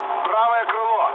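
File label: right wing, mood low, Russian; War Thunder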